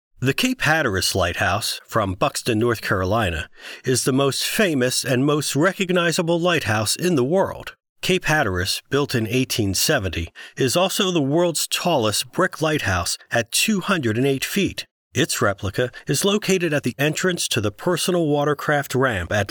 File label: narration; Cape Hatteras